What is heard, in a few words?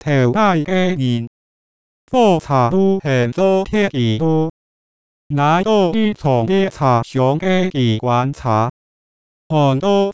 Hakka f